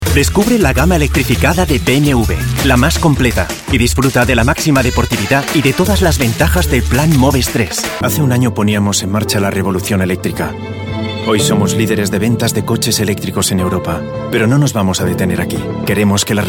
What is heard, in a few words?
spanish automotive, spanish voice actors; Spanish and Catalan professional voice-over artist